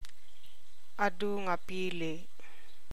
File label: ILOCANO